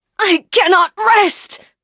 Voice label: hit, en; cookie run